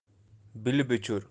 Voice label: "bilbichur" bulbul, Birds of kashmir

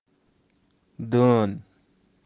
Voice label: "Daen", Kashmiri

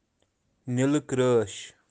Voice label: "nilikreash", kashmiri; kashmiri birds

